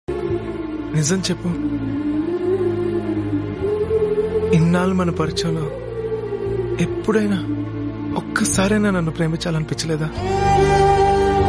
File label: telugu movie dialogues; arya movie